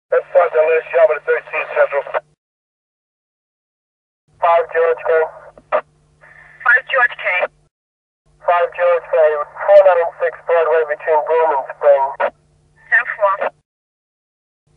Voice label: police wireless voice